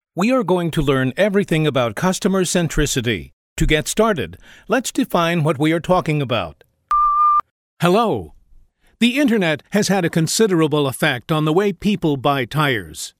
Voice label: Corporate Training